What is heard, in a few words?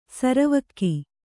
"ಸರವಕ್ಕಿ", Kannada